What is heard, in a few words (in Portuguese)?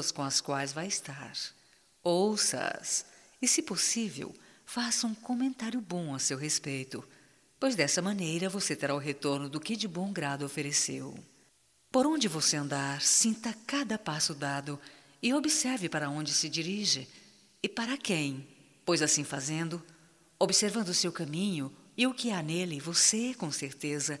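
BOA NOITE - female, Telemensagens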